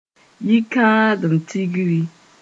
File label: "yi-kãadem tigri", mos-en; marriage celebration